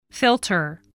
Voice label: "filter", English